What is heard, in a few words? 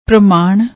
"pramaan", Punjabi